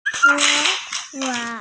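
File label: "shuo hua" Chinese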